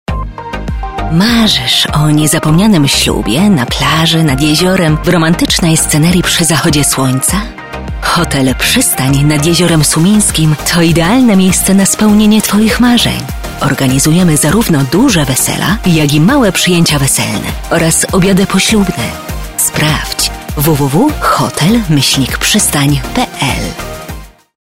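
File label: PL F commercial, Polish, female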